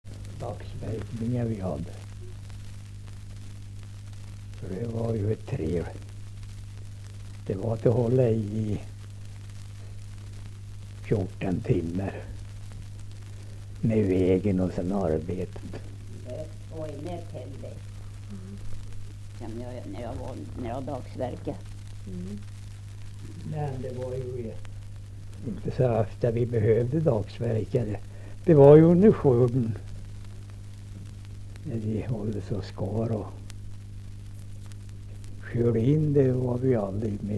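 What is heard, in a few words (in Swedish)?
Lerbäck Närke, dialekter; Folkminnen; Svenska sagor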